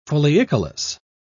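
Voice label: "foliicolous", British English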